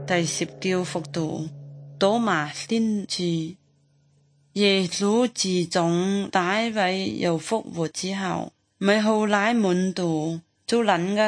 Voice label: good news zhuang guigang guiping

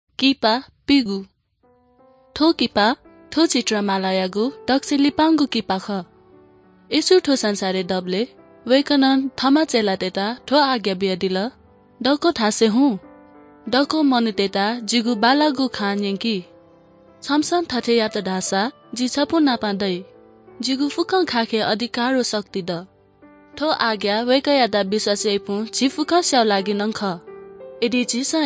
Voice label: good news newari bhaktapur

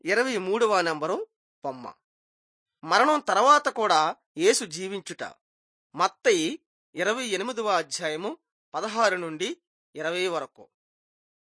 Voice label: telugu bangladesh

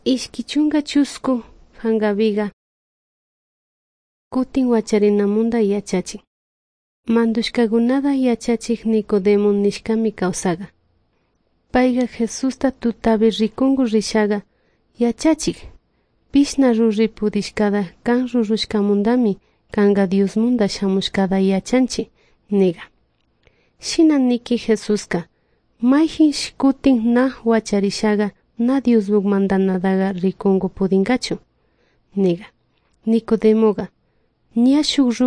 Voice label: good news quichua de salasaca

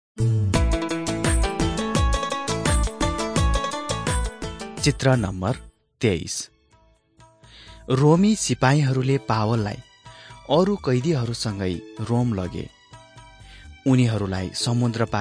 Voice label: acts of the holy spirit nepali

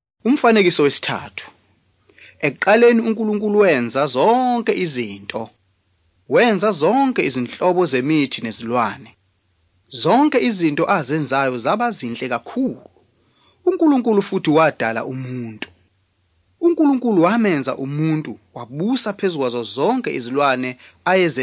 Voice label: zulu bible; good news

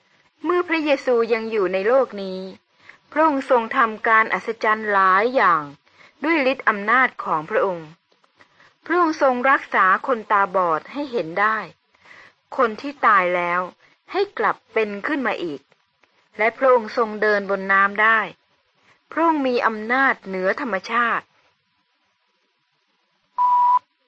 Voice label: good news short thai central

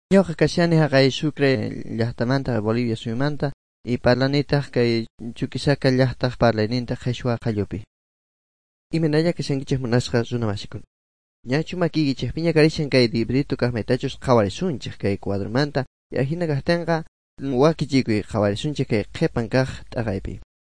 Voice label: good news quechua bolivian sucre; bible

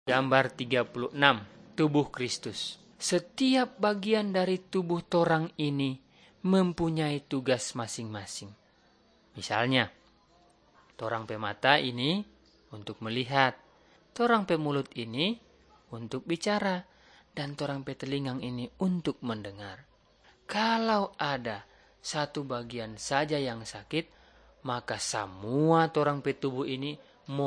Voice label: malay manado; good news

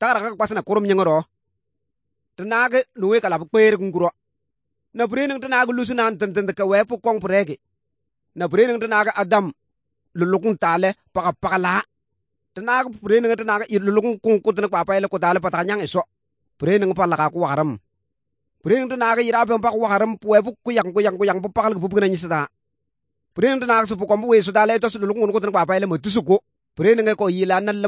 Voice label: good news, kabiye bible

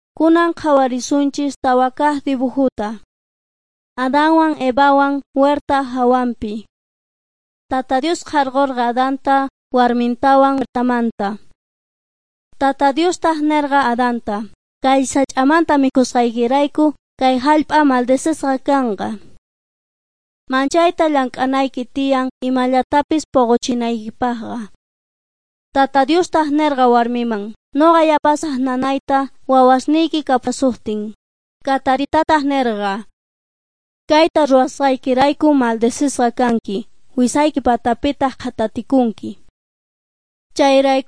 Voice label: beginning with god quechua s bolivian cochabamba

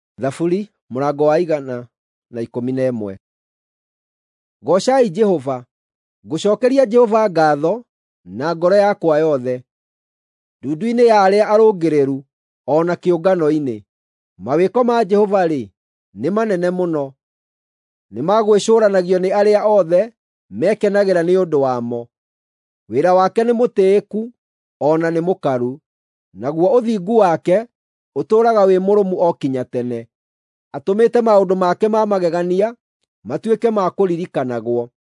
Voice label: psalms, gikuyu drama

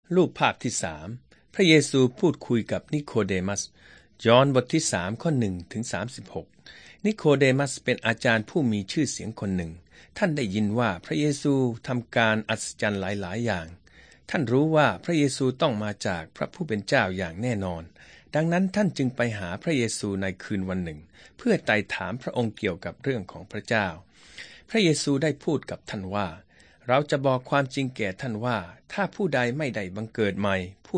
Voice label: thai central

